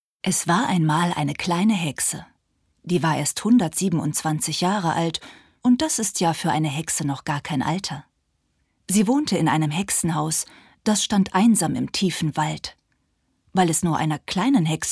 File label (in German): Erzaehlung